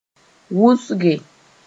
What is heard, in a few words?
"wʋsge", moore; be numerous